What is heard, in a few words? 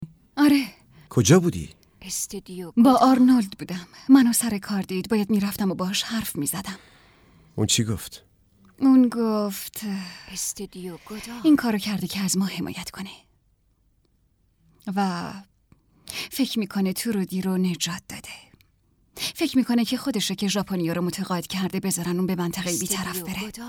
dub